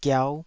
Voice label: "giau5", Taiwanese syllable